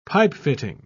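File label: "pipefitting", British English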